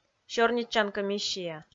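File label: "сёрнитчан комиссия", Komi, female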